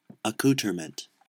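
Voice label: "accoutrement", US English; pronunciation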